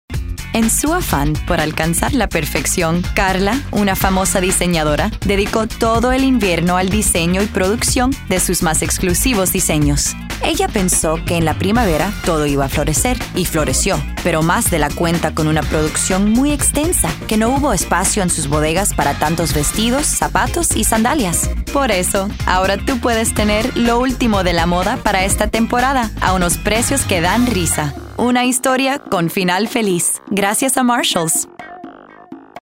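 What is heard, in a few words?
Spanish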